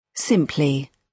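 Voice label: "simply", British English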